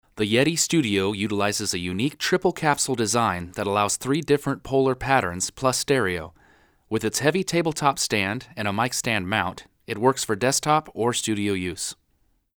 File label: Voc Blue Yeti Omni, usb mic